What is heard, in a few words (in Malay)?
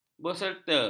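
"berserta", Malay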